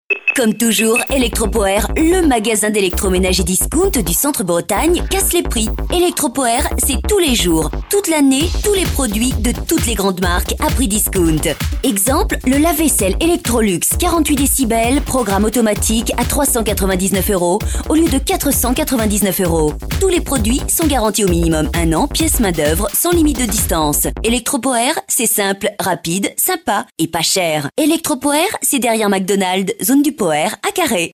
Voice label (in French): radio pub spot